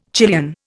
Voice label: "Chilean", Indian

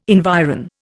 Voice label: "environ", Indian

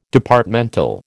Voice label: "Departmental", American

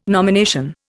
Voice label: "nomination", Indian

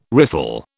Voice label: "riffle", British

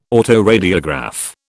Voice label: "autoradiograph", British

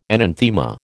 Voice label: "enanthema", American

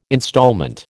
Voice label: "instalment", American

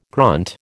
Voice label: "grunt", American

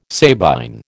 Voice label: "Sabine", American

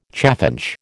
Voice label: "chaffinch", American

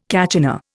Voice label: "kachina", Indian

